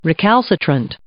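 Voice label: "recalcitrant", English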